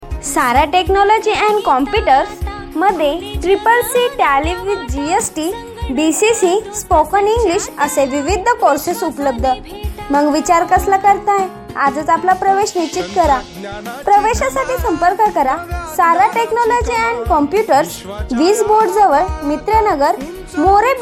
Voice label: Voice Call Ad